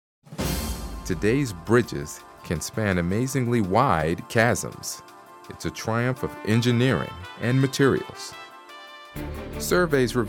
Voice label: Narrations